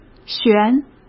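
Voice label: "悬", Mandarin Chinese; hsk; chinese-flashcards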